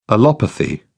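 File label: "allopathy", British English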